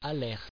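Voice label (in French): "alerte" French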